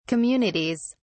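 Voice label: "communities", British English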